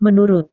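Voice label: "menurut", Indonesian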